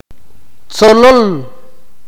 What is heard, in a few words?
"butterfly", akateko